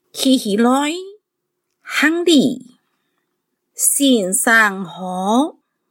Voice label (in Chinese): 客語 課室生活用語 海陸腔